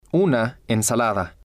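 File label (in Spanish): una ensalada, Spanish, male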